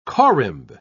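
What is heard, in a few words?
"corymb", British English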